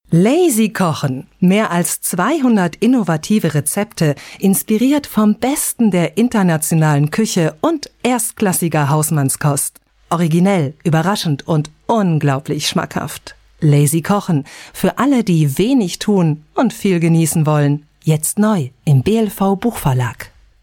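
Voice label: female German commercial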